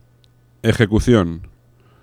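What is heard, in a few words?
"ejecucion", Spanish; laboratori fonetica; paraules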